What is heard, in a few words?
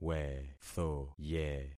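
"wethoye", concatenated syllables; Affixing experiment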